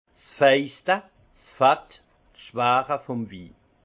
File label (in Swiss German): "faiste", alsace; vin; gras du vin